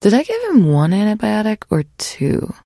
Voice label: trader monolog; 7D2D